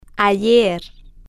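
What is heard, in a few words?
"ayer", Spanish, female, slow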